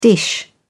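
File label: "dish", British English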